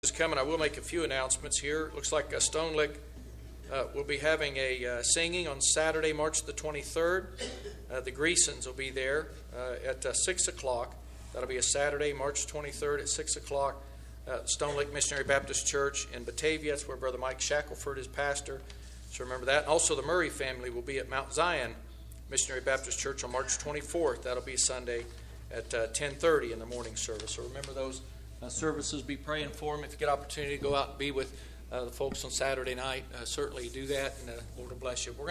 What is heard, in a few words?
Broadcast Prayer